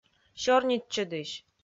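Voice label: "сёрнитчӧдысь", Komi, female; kpv audio dict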